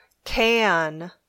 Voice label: "can", English